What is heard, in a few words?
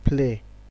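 "play", Nigerian Pidgin, male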